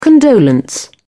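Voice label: "condolence", British English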